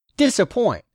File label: "disappoint", English; 3000 words